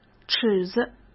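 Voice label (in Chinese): "尺子", Mandarin Chinese; hsk; chinese-flashcards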